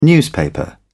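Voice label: "newspaper", British English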